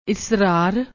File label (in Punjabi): "esrar", Punjabi; ਇਸਰਾਰ